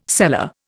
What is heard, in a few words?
"seller", Indian